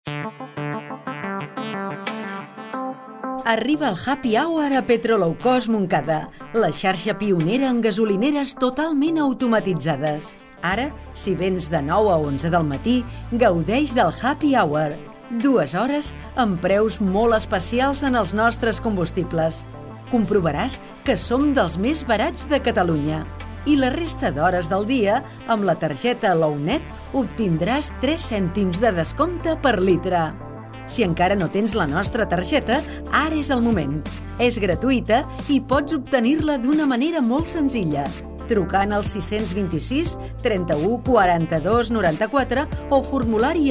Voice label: narración catalán

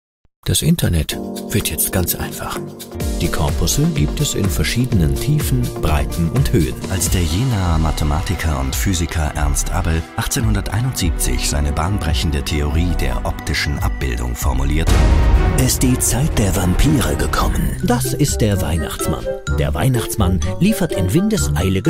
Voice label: comercial alemán

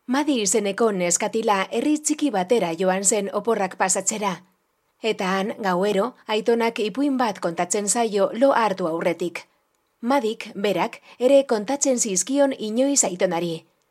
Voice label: narración euskera

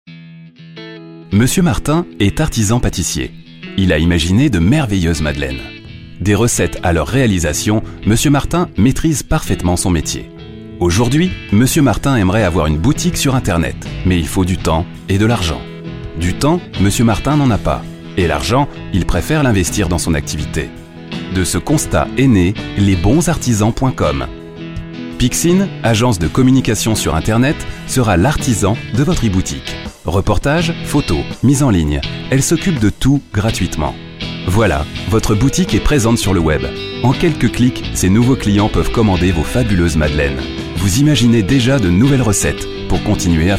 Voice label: narración francés

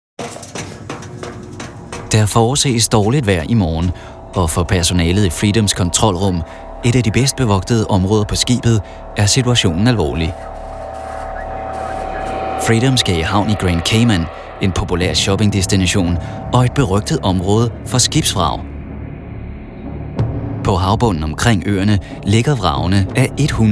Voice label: narración danés

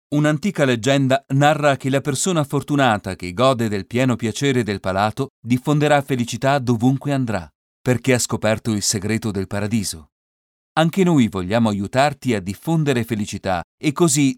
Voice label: narracion italiano

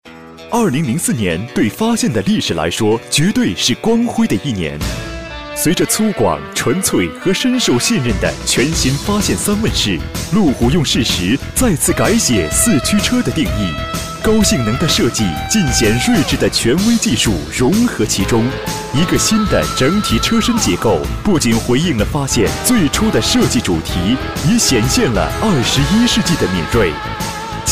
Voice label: comercial chino mandarín

